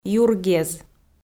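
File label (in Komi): "юргез" Komi, female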